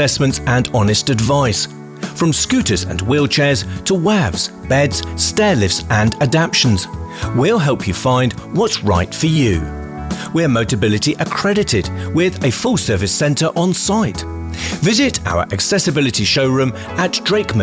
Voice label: radio commercial community

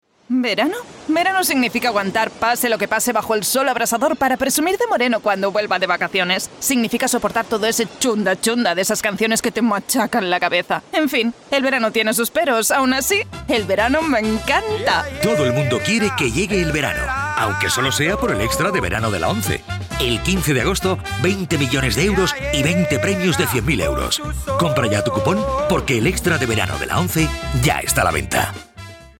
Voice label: catalan radio commercial

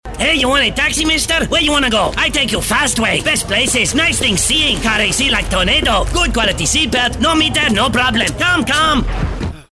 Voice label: mexican

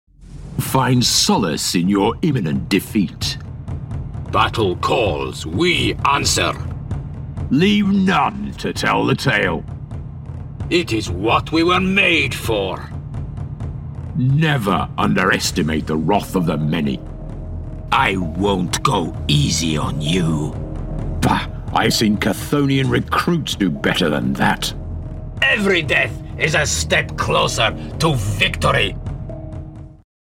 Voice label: gaming voices; warhammer 40k

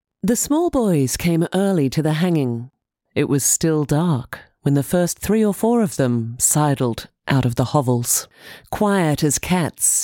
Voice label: australian female audiobook

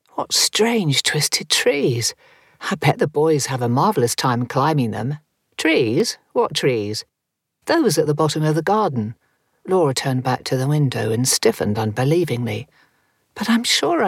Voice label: audiobook, Warm Authoritative British Voice

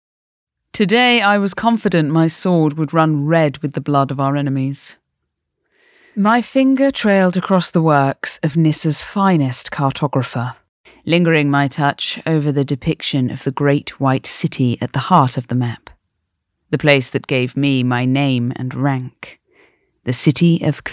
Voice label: sassy voiceover audiobook

